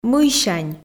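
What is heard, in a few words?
"мыйсянь", Komi, female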